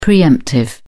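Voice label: "pre-emptive", English, British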